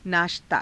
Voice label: "naashtaa", Hindi